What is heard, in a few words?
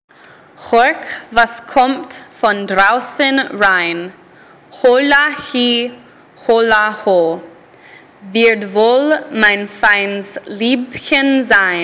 german pronunciation